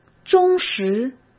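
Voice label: "忠实", Mandarin Chinese; hsk; chinese-flashcards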